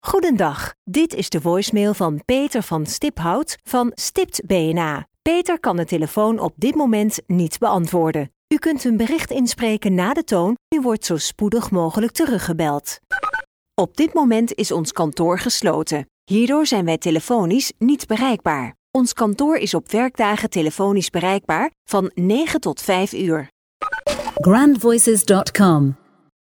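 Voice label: Dutch female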